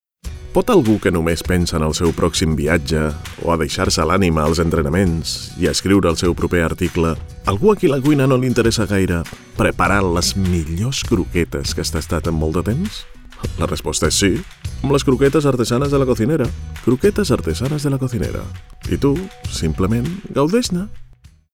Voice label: male catalan tv comercial; ideasonora